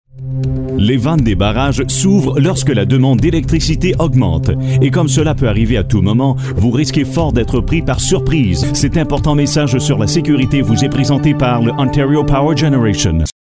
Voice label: FRENCH Canadian Male